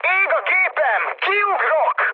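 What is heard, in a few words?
Hungarian on fire mood high, speech; War Thunder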